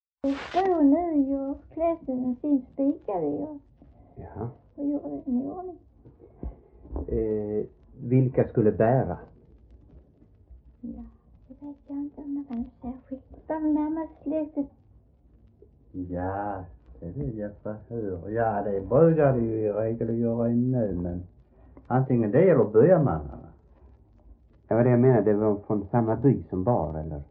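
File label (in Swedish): Äspö Skåne, Svenska sagor dialekter; Folkminnen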